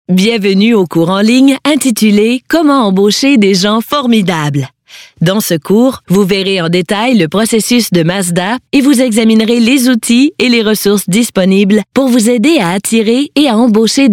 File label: french canadian commercial